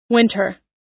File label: "winter", us